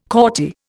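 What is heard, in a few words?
"courtier", Indian English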